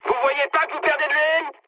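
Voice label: French damaged oil mood high; War Thunder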